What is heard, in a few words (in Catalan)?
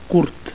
"curt", Catalan; Short